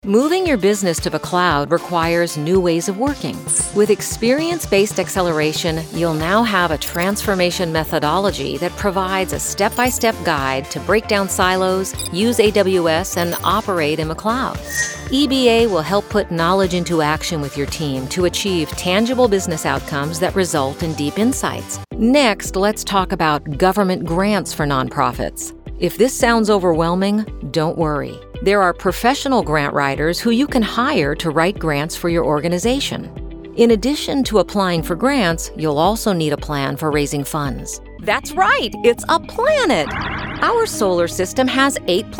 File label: Narration; TaDa